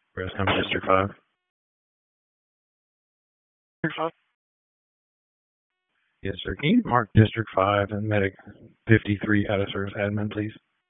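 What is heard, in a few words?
EMS Dispatch, p25; wake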